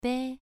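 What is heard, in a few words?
"bei" Mandarin, second tone, pronunciation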